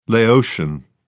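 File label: "laotian", British English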